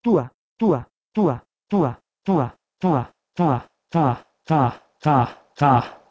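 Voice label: Proto-Indo-European tuh2 to Pashto tah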